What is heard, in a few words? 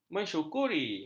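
"mensyukuri", Malay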